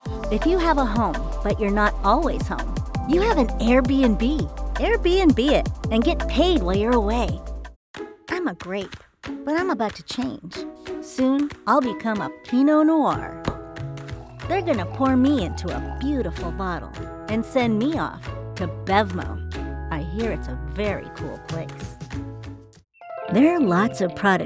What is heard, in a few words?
COMMERCIAL, voiceover